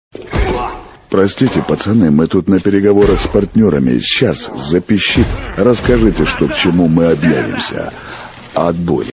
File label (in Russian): avtootvetchik